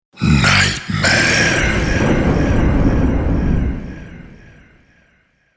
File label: Nightmare Spoken, The word ‘Nightmare’ spoken in deep sinister voice with ominous thunde; The word ‘Nightmare’ spoken in deep sinister voice with ominous thunder; Evil Voices